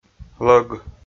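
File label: "лӧг", Komi, male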